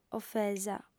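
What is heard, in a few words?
"ofesa", Sardinian, female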